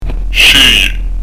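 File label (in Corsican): "sceglie", Corsican; ʃ'éjè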